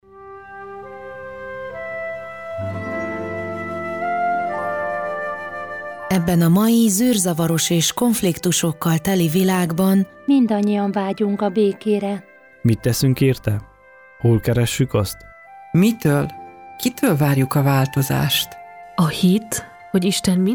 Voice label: Radiospot; Ima a bekeert; Musoros reklam szpotok